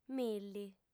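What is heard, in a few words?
"milli", female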